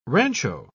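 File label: "rancho", British English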